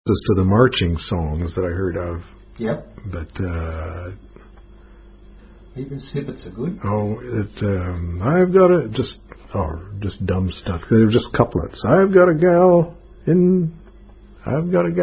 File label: The Duckworth Chant (Sound Off) Recited Verses; rob willis collection